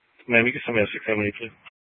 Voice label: Sheriff, scanner; Sheriff Fountain Hills